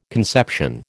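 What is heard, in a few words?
"conception", American English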